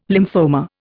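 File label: "lymphoma", Indian English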